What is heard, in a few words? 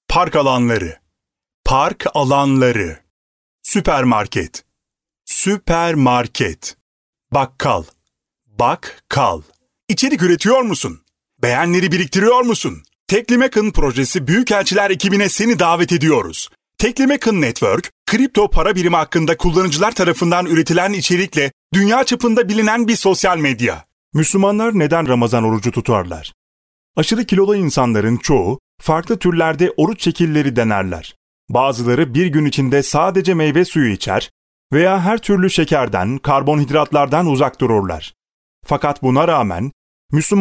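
Turkish, male; lektoring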